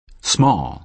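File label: "small", American English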